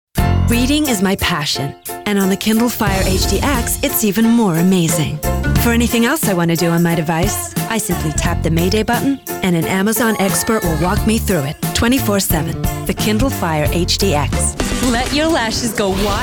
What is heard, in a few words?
American Commercial